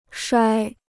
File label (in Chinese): "摔", Chinese